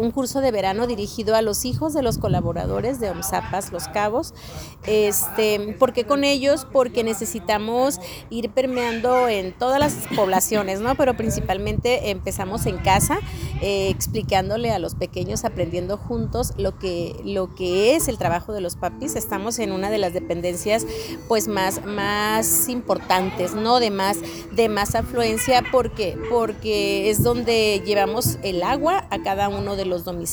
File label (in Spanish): RADIO INSERT